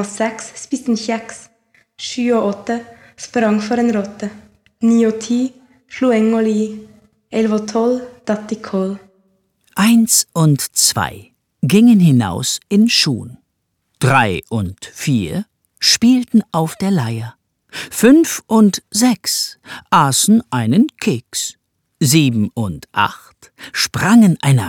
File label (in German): Norwegisch, kinderverse